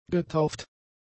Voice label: "getauft", german; adjectives

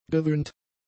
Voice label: "gewöhnt", german; adjectives

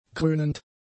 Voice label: "krönend", German; adjectives

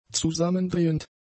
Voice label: "zusammendrehend", German; adjectives

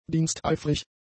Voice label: "diensteifrig", german; adjectives

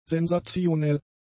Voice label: "sensationell", German; adjectives

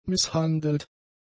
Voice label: "misshandelt", German; adjectives

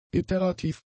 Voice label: "iterativ", german; adjectives

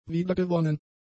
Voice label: "wiedergewonnen", German; adjectives

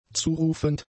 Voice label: "zurufend", German; adjectives

